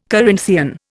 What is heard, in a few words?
"Corinthian", Indian